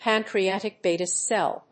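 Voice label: "pancreatic beta cell", English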